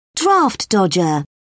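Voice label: "draft dodger", British English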